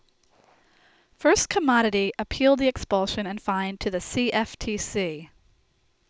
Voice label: Female Speech